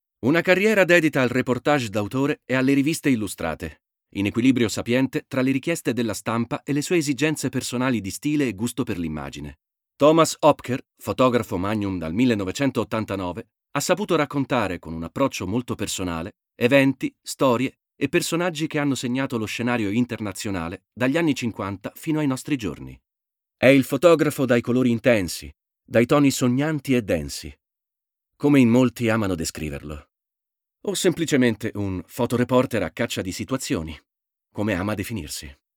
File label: VoiceOver, ITALIAN MALE